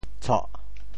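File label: "tshoh4", Teochew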